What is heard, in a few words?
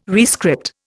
"rescript", Indian